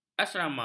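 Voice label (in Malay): "asrama", Malay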